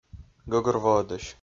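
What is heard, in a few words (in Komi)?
"гӧгӧрвоӧдысь", Komi, male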